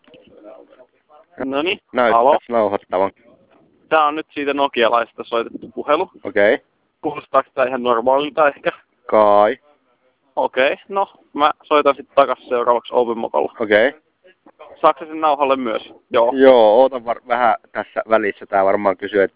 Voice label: call quality, nokia; openmoko